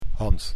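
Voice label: "hand", Dutch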